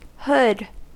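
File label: "hood", US English; pronunciations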